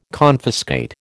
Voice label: "confiscate", American